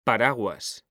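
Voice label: "paraguas", Spanish, male; mekanta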